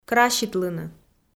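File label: "краситлыны", Komi, female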